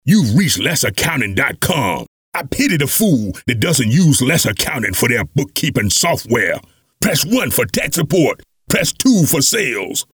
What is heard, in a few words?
english american impersonations